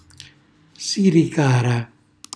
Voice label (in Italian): "siricara", Calabrese; cucina calabrese